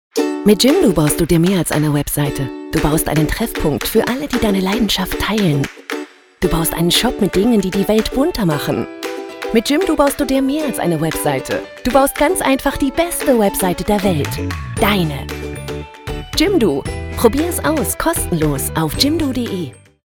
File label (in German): TV Spot, werbung; Jimdo